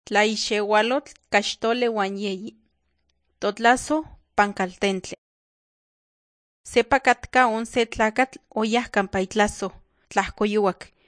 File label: nahuatl guerrero tlapa; the bible league nt drama